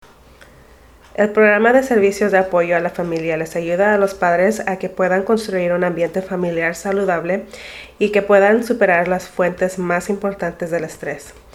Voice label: Spanish